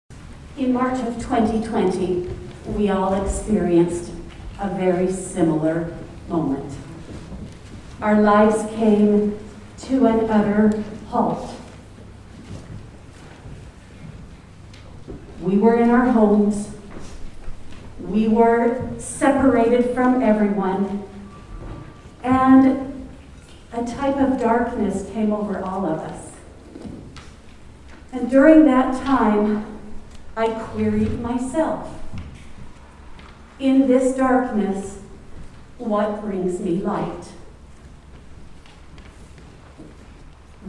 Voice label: Narration